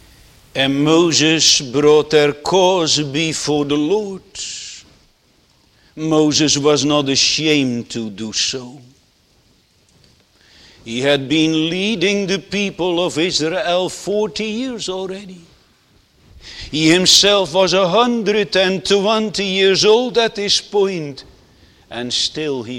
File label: Sermon Continued